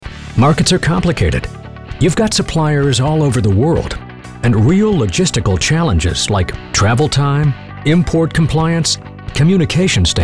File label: Narration